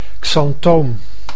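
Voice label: "xanthoom", Dutch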